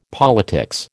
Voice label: "politics", American